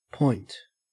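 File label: "point", Australian English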